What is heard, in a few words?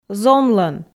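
"зонлӧн", Komi, female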